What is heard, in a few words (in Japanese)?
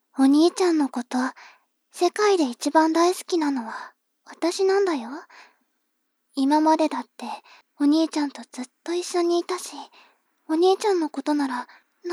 妹 台詞